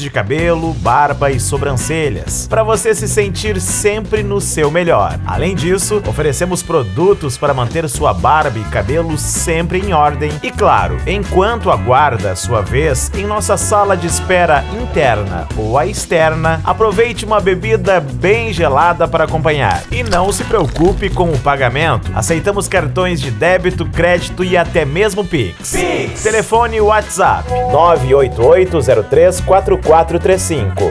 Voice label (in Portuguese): Locutor Spot Barbearia; Chapeco SC